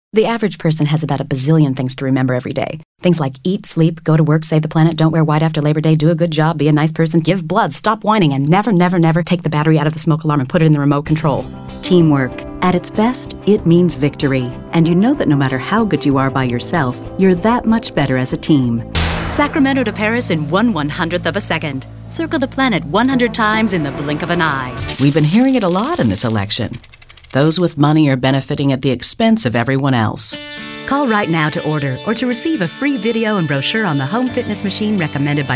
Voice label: women commercial

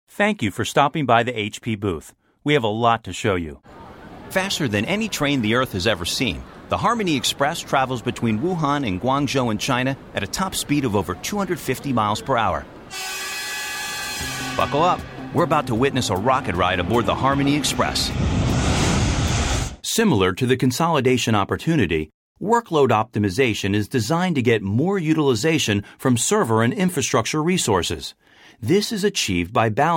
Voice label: men narration; A voice that cuts through - in a nutshell- smart, warm, dry and quirky.

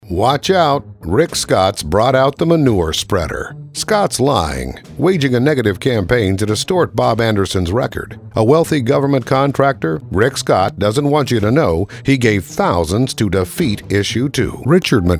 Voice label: men political; Warm to fuzzy, bigger voice.

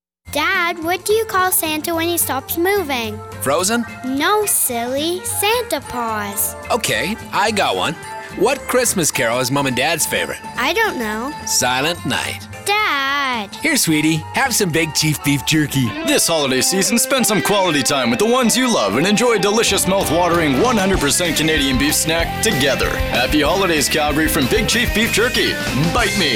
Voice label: kids commercial; Adorable and inquisitive. Attitude on demand.

